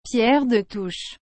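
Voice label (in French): "pierre de touche", French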